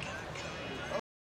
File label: Male Radio; NYCC 2013